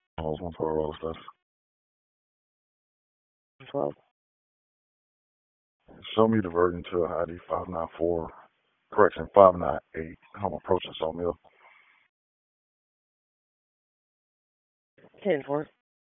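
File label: Police Dispatch, p25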